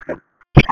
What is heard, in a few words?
Police Sheriff Dispatch